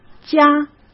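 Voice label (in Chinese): "加", Mandarin Chinese; hsk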